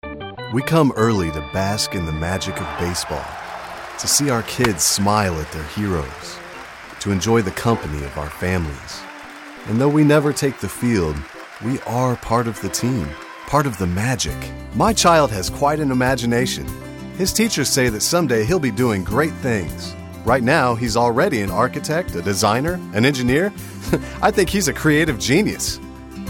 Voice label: commercial